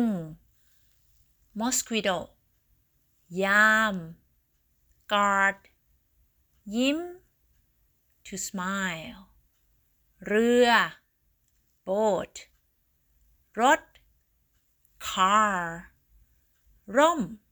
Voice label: Learning Thai words